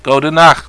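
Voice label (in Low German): "godnacht", Plattdüütsch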